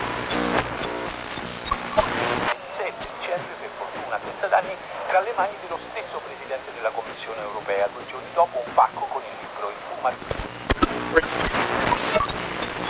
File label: italian radio, chatter